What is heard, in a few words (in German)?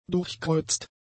"durchkreuzt", German; adjectives